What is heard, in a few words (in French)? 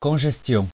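"congestion" French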